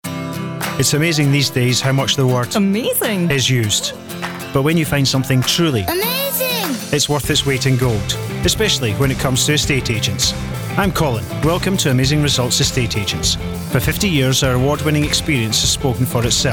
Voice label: Generic Advert